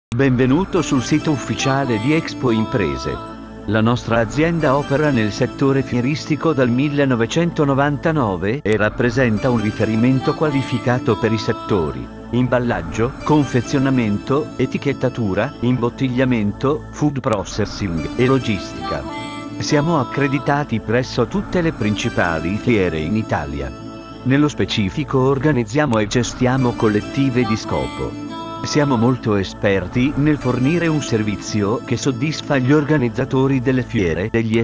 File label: MESSAGE ITA